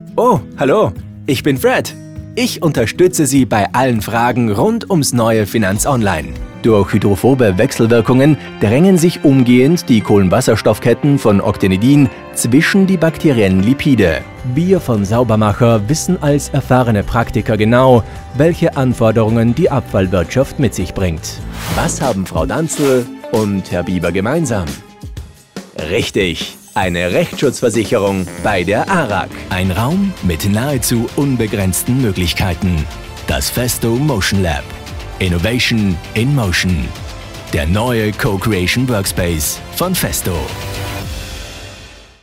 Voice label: explainer

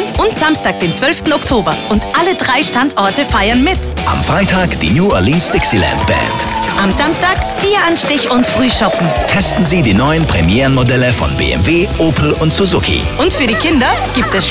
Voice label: SPOT Oktoberfest; Autohaus; Autohaus Auer

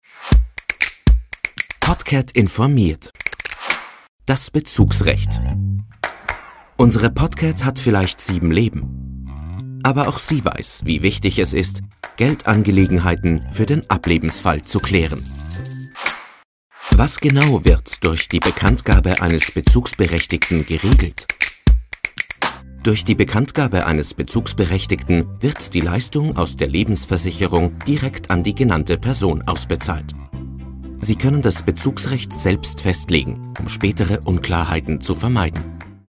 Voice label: E-Learning; Raiffeisen Versicherung

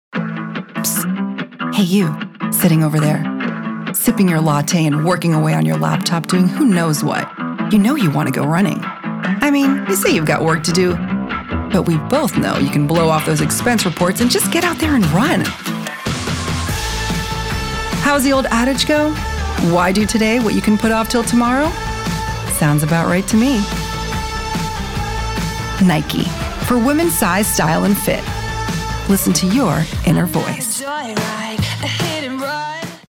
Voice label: Commercial; New Nike

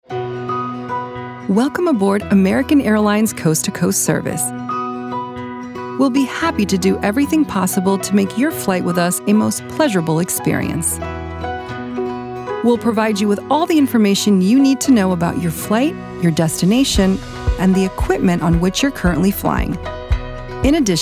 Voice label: Corporate; American Airlnes

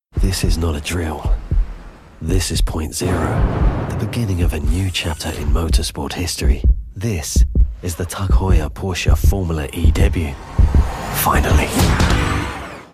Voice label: AD CAMPAIGN; Porsche

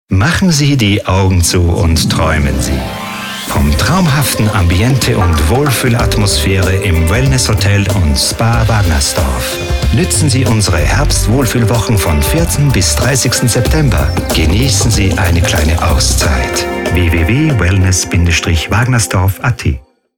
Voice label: Spot SOFT

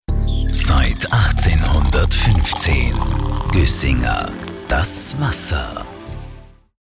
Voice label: Spot; Guessinger

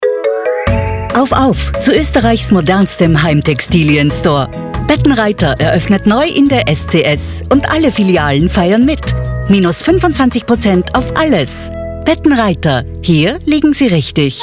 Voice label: commercial; Betten Reiter

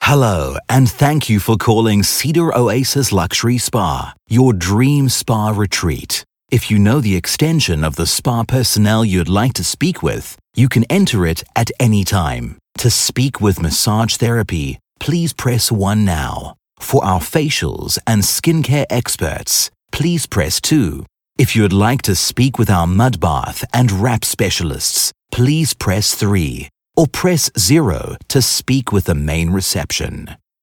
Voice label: IVR

